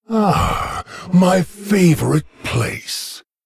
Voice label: Male Lich GREETINGS; MIRROR